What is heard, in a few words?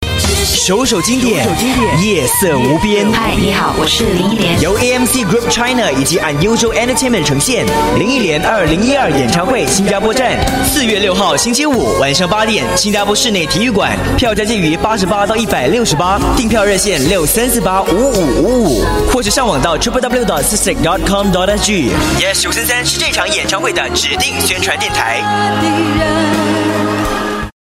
Mandarin Concert Trailer, Male; China